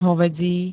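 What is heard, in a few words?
"hovädzí", Slovak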